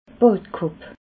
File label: "badkapp", alsace